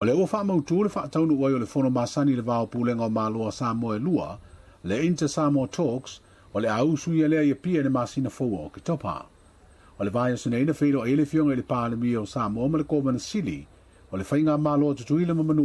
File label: samoan; Faia i le masina fou talanoaga i le va o Samoa e lua.; SBS Samoan